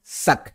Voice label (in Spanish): blanco - "Sak", Maya